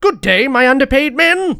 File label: battlecry